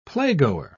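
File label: "playgoer", British English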